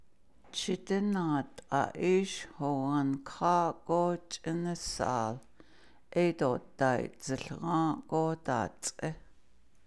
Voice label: Did the airplane fly over the house or along the, Navajo